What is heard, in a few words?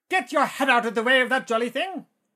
battle chatter; SyrinScape; Syrinscape unplugged; Jungleplanet dinolaser battle